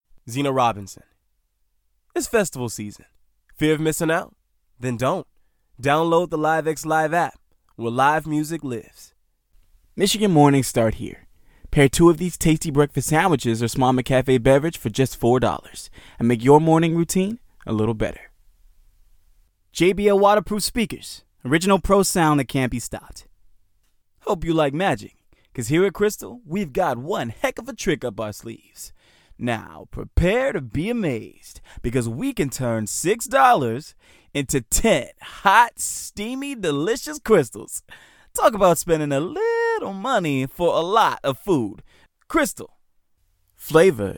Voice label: Male Commercial